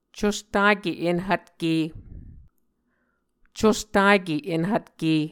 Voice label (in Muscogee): "custake enhvtke", Muscogee; Mvskoke Words with Voicing Consonants; Martin, Jack B., and Margaret McKane Mauldin. 2023. A Dictionary of Creek/Muskogee; SIL International